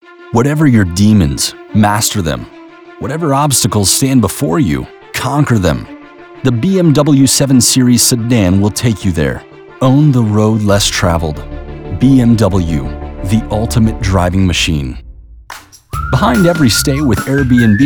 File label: SHORT COMMERCIAL